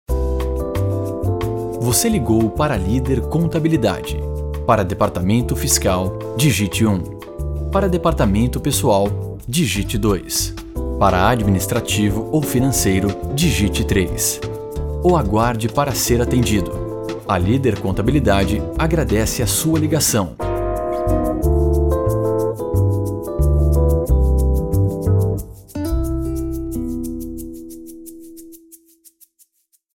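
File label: portuguese brazilian interactive voice response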